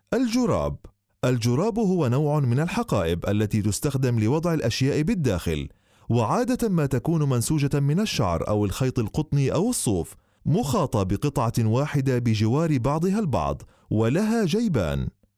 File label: arabic